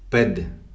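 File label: "pede", male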